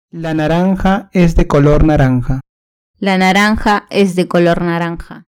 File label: "naranja", Spanish; Vocabulario; colores